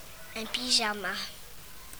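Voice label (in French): "pyjama" French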